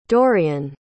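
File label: "dorian", English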